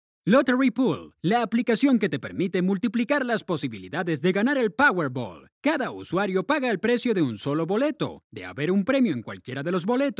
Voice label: LOTTERY CASUAL

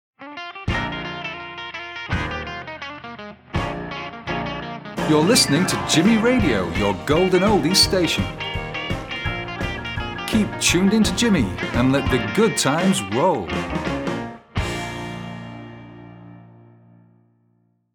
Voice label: Radio Station Tag

